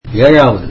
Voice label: tamil seventh